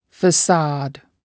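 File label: "facade", English word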